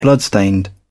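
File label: "bloodstained", British English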